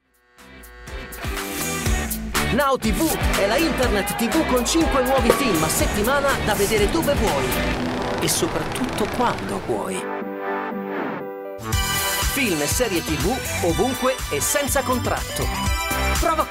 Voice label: italian commercial